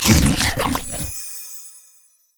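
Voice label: pirate remove scurvy; LoL; gangplank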